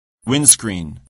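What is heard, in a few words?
"windscreen", English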